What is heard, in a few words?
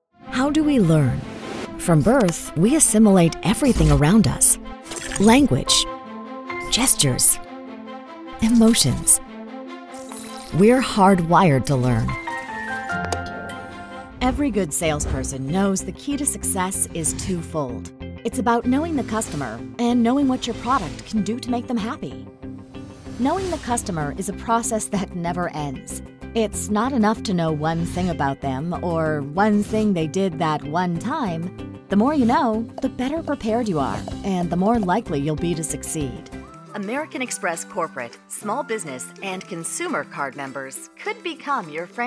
Eplainer